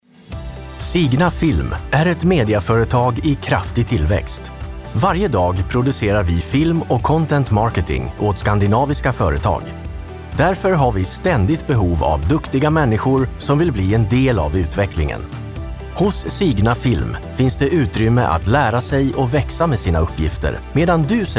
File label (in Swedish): Svensk, speakere